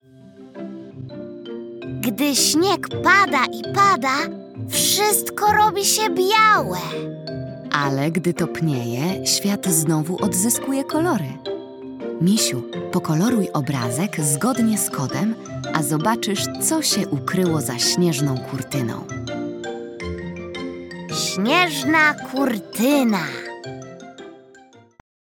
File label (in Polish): narracja; czasopismo mis; zima; rozmowa